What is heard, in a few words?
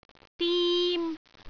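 "dim1", Cantonese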